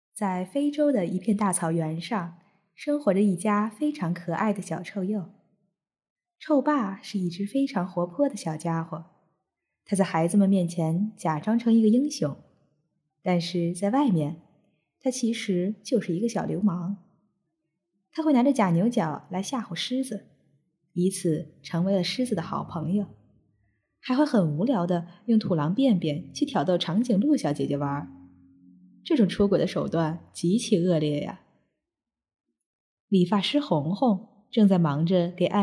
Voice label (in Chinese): 纪录片旁白; 纪录片旁白试音